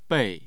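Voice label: "bei", Mandarin Chinese, tone 4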